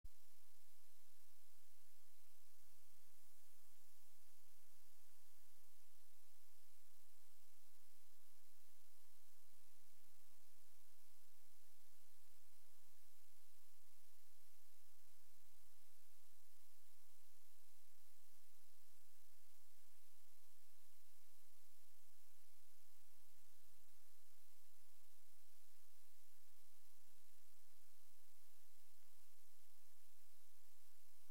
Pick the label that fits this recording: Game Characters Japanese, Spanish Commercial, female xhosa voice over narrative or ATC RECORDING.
ATC RECORDING